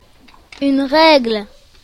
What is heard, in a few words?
"lineal", French; classroom